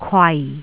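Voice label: "kwai4", Cantonese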